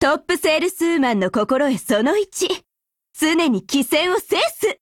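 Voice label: attack, Japanese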